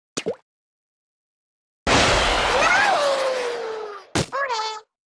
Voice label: Octoling Girl Super Jump